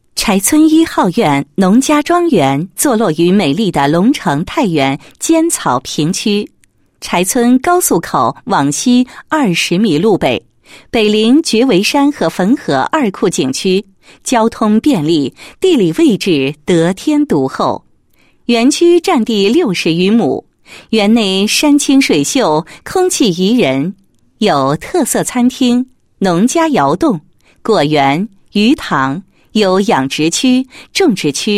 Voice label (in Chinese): 女 宣传片; 柴村壹号院农家庄园